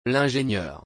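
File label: "L'ingénieur", French; L'ingénieur (m.); مهندس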